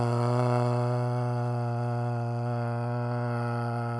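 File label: cerebral ataxia father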